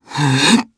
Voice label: Vox Casting jp; Fluss; kingsraid